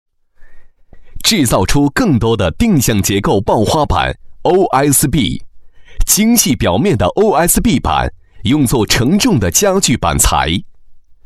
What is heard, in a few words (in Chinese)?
专题片 产品解说